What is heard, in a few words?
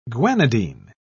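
"guanidine", British English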